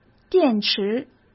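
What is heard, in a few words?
"电池", Mandarin Chinese; chinese flashcards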